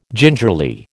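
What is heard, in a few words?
"gingerly", American English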